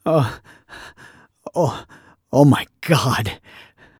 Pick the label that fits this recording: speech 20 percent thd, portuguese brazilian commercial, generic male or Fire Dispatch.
generic male